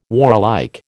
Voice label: "warlike", American